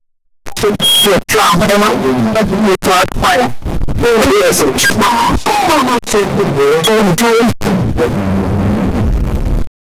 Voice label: a male clown says wrong